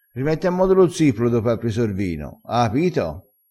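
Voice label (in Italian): "zipolo", dialetto crespinese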